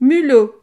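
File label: "mulot", French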